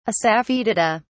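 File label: "assafoetida", English, British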